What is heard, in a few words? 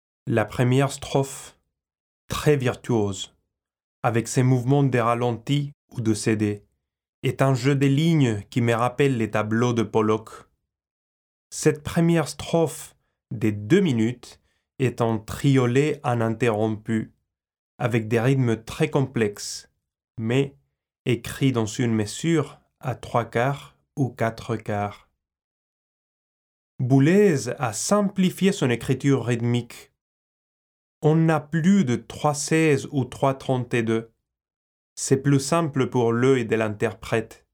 foreigner